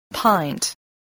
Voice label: "pint", voice, English

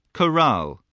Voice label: "corral", voice spelling

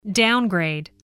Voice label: "downgrade", spelling

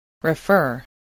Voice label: "refer", spelling voice

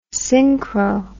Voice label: "synchro", English word

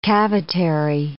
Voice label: "cavitary", English

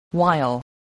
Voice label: "while", spelling

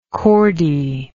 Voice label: "chordee", English

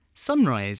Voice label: "sunrise", spelling

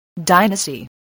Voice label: "dynasty", English

